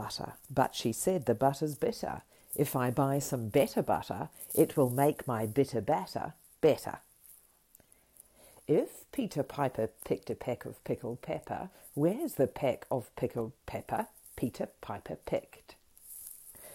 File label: Tongue twisters